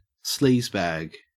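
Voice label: "sleazebag" English, Australian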